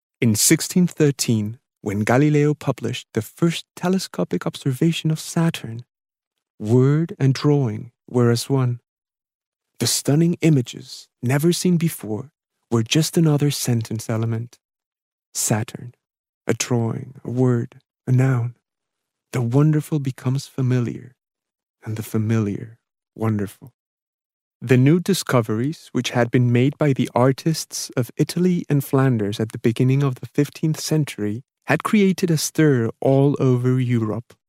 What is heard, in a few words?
Spanish Latin English